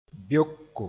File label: "beoko", Mooré